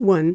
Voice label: one, english; mpib memoreye